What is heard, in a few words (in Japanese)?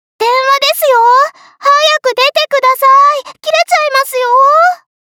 電話受信, システムボイス; 桐乃